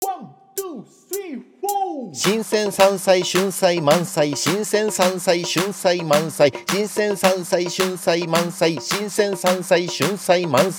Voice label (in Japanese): 早口言葉リズム（中級編）